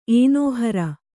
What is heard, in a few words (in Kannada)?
"ಏನೋಹರ", kannada